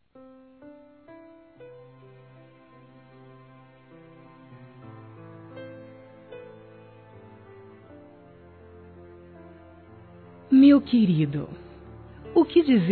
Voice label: aniv esposo f; Aniversários; ANIVERSARIO romantico; fem

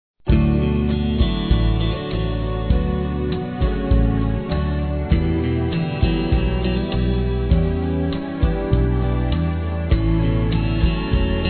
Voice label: Amizade distante f; Telemensagens; Saudades

